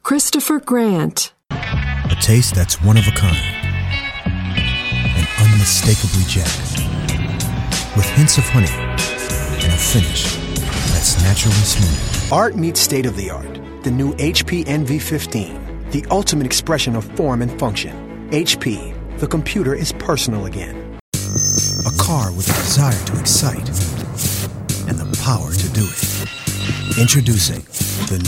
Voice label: New Commercial